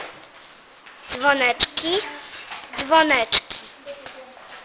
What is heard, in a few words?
bells dzwoneczki, Polish